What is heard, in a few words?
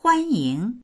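"huan1ying2", Mandarin Chinese; 欢迎; huānyíng; 歓迎する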